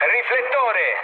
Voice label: bomb success, mood low, speech it; War Thunder

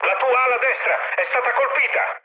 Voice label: right wing, mood med, speech it; War Thunder

